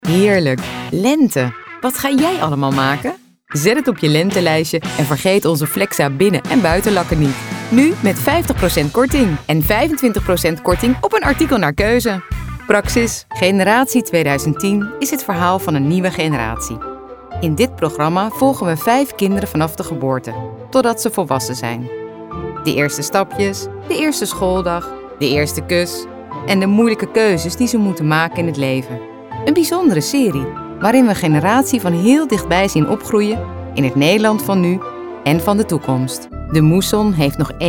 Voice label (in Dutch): Stemdemo voice over